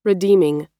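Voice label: "redeeming", American English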